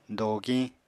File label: "dogiñ", apatani